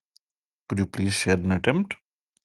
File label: voice message